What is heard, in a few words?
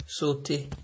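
"sotay", Nigerian Pidgin, male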